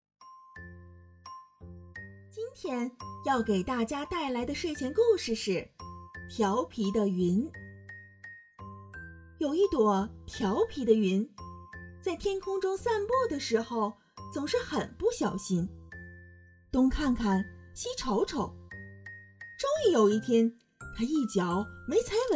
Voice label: 儿童故事缩混

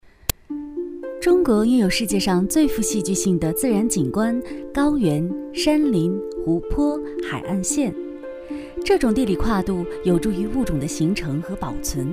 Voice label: 纪录片旁白

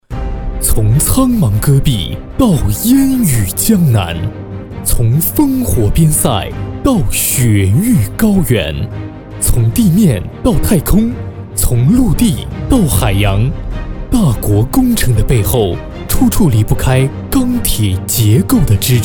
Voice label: 工程专题沉稳坚定; 苍茫戈壁

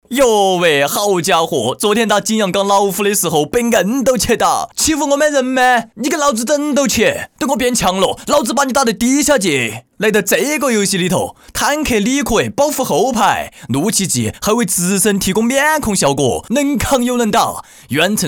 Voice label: 四川话; 小浣熊百将传打老虎

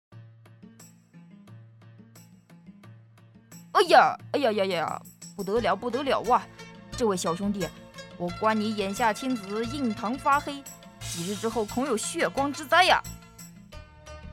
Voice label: 角色不靠谱的小神棍正太

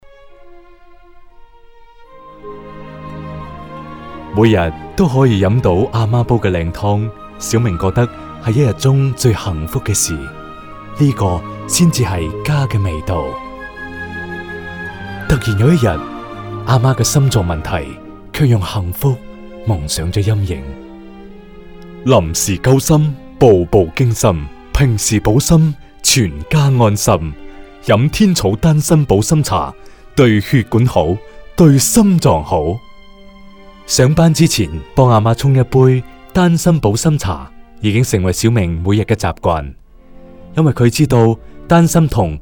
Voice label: 粤语广告; 天草丹参保心茶

